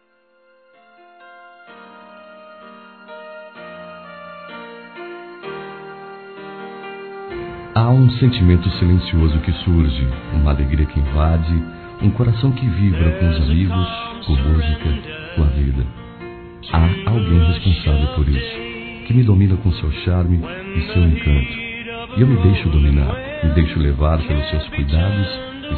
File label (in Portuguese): Acho que estou gostando de Você Masculino; Telemensagens; Declaração de amor; Telemensagens 2017